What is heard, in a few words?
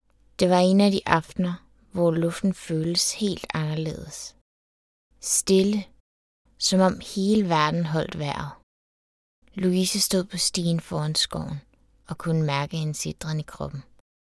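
Danish female narration audio book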